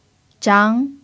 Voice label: "zhang2", Mandarin pinyin